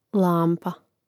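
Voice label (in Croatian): "lampa" Croatian; imenica; Rastavljanje na slogove: lam-pa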